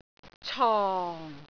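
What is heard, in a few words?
"cong4", Cantonese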